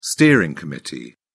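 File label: "steering committee", British English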